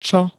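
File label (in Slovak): "čo", Slovak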